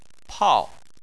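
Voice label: "pao" fourth tone, Chinese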